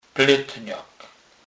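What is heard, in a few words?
"pletnak", Polish, Kurpie dialect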